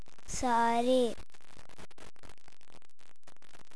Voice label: all, punjabi

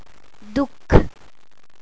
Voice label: grief, punjabi

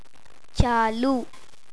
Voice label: begin, punjabi

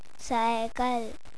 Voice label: bicycle, punjabi

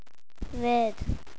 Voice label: doctor, punjabi

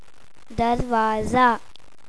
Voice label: door, punjabi

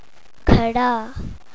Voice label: stand, punjabi

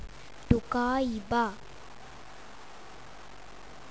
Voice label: knock, Oriya

